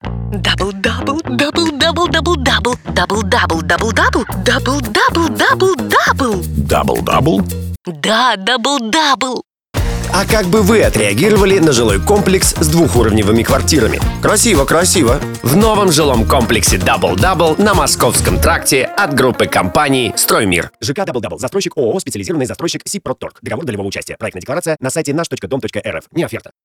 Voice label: radio tizer